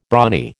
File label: "brawny", American